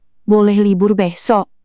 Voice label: indonesia, indonesian negative phrases